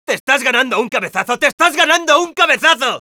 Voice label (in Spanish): Scout taunts, es